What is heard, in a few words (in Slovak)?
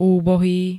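"úbohý", Slovenské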